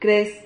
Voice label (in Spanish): "crez", Silabas